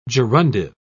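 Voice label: "gerundive", British English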